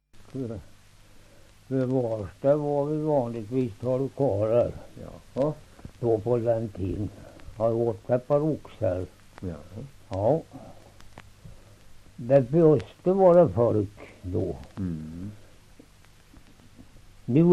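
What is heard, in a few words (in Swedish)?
Svärta Södermanland, dialekter; Folkminnen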